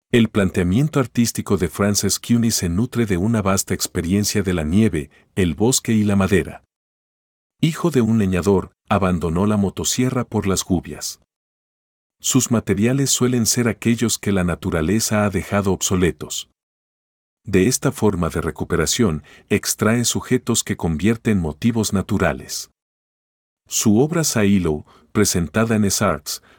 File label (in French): espagnol